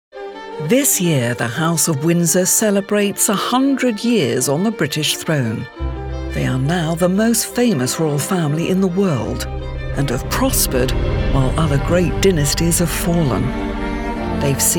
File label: Documentary Reel